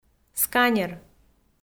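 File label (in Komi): "сканер", Komi, female; kpv audio dict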